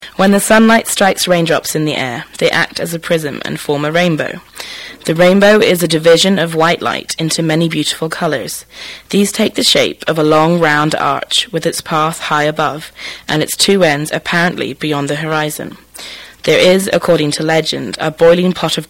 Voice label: england, rp, dialects